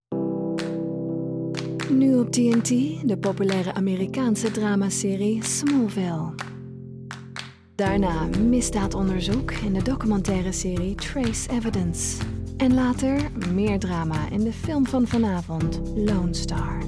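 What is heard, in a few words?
Dutch, TV Continuity; GoLocalise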